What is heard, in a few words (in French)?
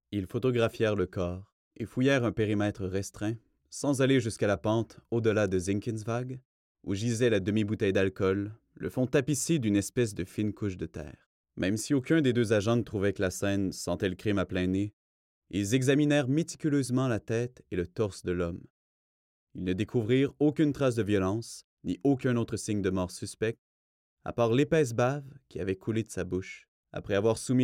livre audio policier narration fr qc naturel amical avec sourire comedie; jeune adulte